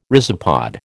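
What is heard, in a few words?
"rhizopod", American English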